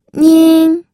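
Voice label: "nin", Mandarin Chinese, first tone; pinyin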